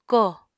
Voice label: "kóò", Apatani

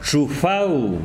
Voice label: "polvo", tsesungun

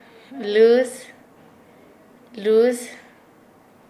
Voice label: Nasa Yuwe; arroz

